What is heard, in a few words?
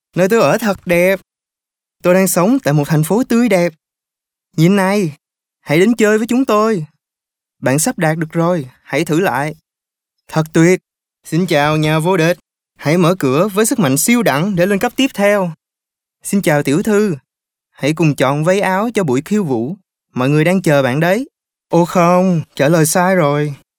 Male Vietnamese Toys